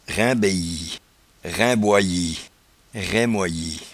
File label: "raimbayie", patois jura